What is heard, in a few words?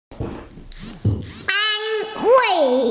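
"an1wei4", Mandarin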